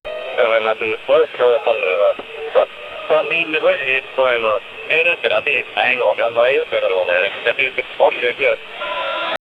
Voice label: young men talking sarcast; radio